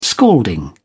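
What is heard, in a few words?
"scalding", British English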